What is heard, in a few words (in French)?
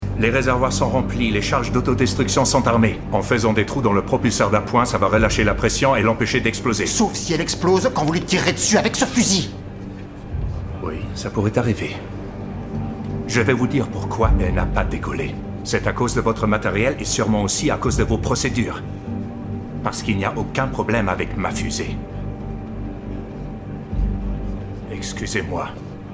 voices doublage francais; etoffe heros